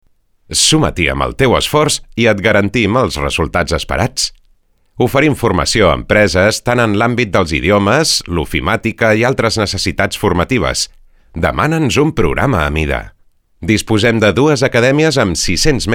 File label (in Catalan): NARRACIO Catala